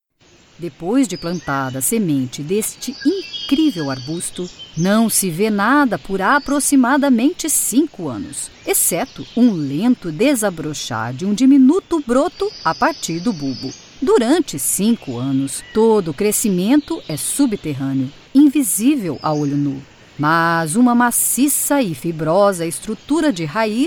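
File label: Portuguese documentary; GoLocalise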